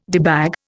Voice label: "debag", Indian

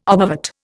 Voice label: "obovate", Indian

